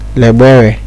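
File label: "legbere", Nigerian Pidgin, male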